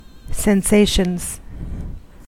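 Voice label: "sensations", American English; pronunciations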